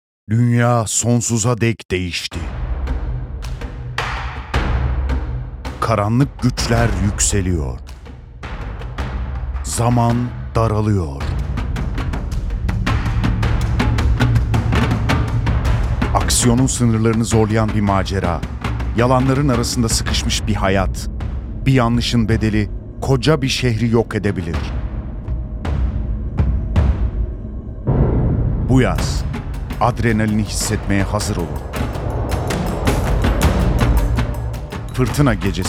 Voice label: film fragmani

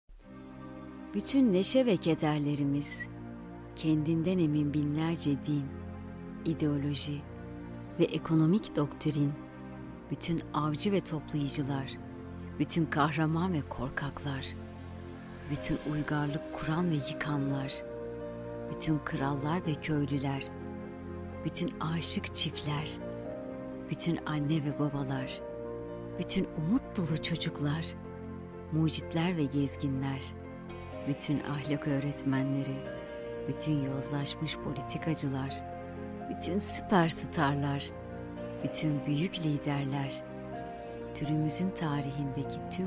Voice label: dünya belgeseli

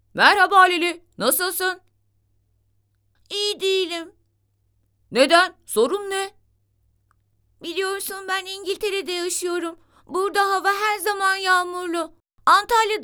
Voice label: kiz ve erkek

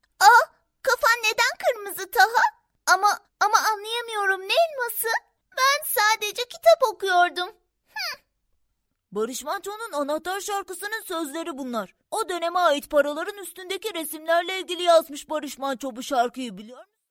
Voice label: cocuk ses

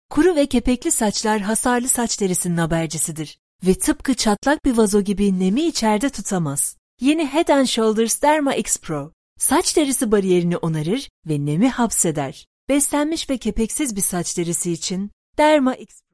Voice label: reklam; headandshoulders

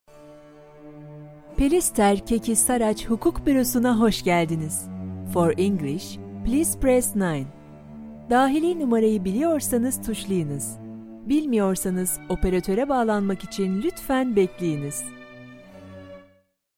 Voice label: turkce santral

